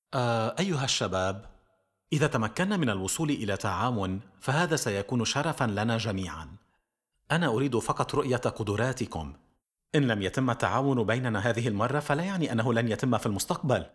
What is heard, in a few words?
Drama